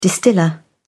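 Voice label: "distiller", British English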